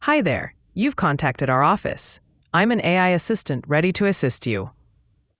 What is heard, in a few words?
question english